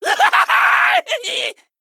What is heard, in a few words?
Male Human Play